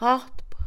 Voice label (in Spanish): llegar, Mixe, Mujer; INALI